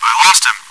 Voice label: chitchat, bot, voice commands; svencoop